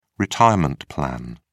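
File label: "retirement plan", British English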